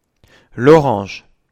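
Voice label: "pomarancza", Polish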